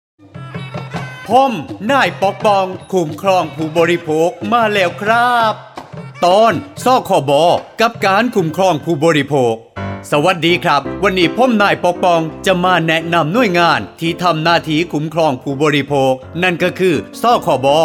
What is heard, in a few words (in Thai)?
สปอตวิทยุ สคบ. ภาคใต้